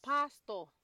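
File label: "pastor", Nigerian Pidgin, female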